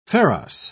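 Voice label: "pharos", English, British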